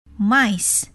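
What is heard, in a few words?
"mice", English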